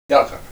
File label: "anchor", Ninilchik Russian